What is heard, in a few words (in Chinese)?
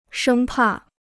"生怕", Chinese